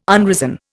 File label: "unrisen", Indian